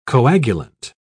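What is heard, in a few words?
"coagulant", English, British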